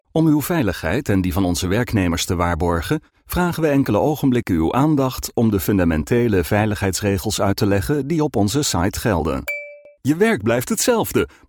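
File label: Dutch narration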